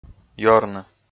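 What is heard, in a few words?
"ёрны", Komi, male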